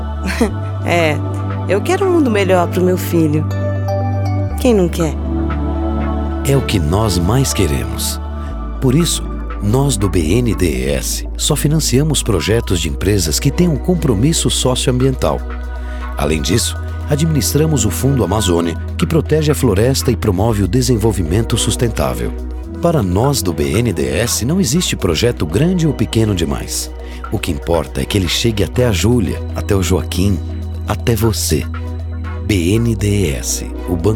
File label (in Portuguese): spot; BNDES; VIDA